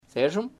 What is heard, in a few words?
thunder, Chamacoco